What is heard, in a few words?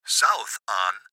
Gps Voice, Male; Clip Speaker Adult Male; Recorded Voice; Blastwave; Technology